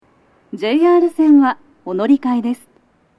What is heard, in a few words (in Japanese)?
扉閉め放送は、「JR線は、お乗換えです。」; 扉閉め放送の、乗り換え路線パーツを抽出した被り無し音声を載せてみました。; 乗り換え放送; 収録者:こたけ